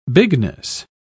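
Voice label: "bigness", British English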